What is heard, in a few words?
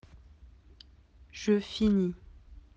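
"finir", French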